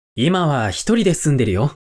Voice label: man